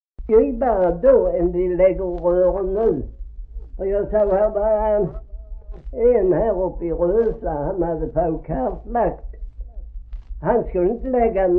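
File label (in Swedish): Gråmanstorp Skåne, dialekter; Folkminnen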